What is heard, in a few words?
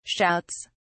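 "shouts", British English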